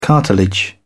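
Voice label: "cartilage", English, British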